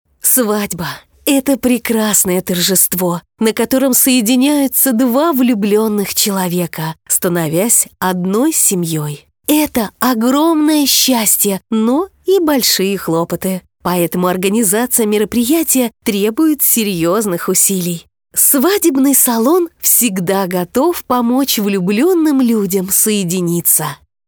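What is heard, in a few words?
Russian female Inspiration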